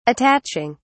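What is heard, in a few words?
"attaching", English, British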